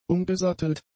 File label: "umgesattelt", German; adjectives